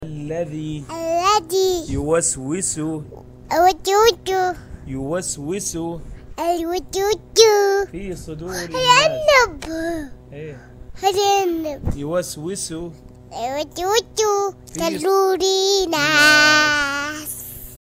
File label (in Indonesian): Bayi ngaji